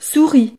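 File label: "souris", French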